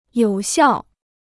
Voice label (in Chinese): "有效", Chinese